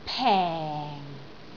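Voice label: "peng4", Cantonese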